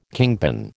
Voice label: "kingpin", American